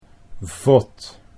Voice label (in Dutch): "vod", Dutch